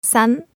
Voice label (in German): zaehlen japanisch